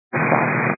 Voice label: 122 925MHz, scanner radio